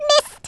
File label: "missed", Brooklyn; Speech; Worms Armageddon